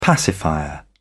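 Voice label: "pacifier", British English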